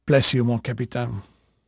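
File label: French male, voice; Pirates Of The Caribbean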